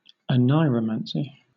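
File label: "oneiromancy", British English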